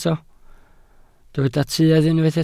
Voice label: "tuedd", Welsh